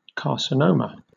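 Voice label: "carcinoma" English, British accent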